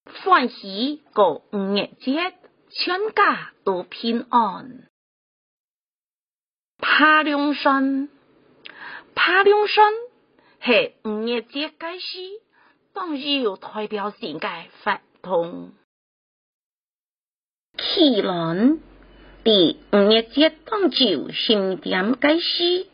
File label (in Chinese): 海陸腔; 端午節海報